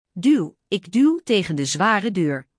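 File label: "duw", Dutch; Spelling Gr4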